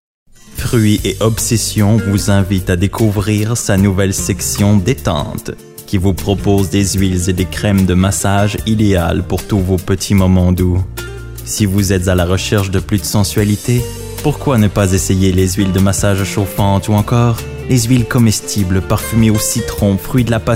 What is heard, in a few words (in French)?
voix douce suave; Huiles à masage